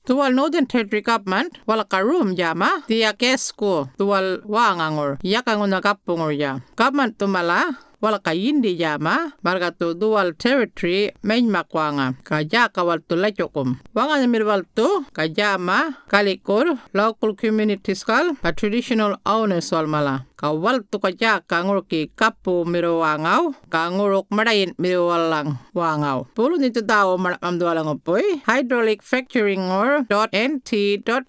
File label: yolngu matha